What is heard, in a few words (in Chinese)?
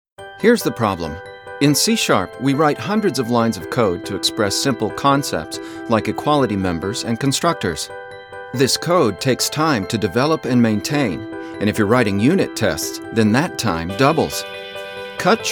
外籍男 功能讲解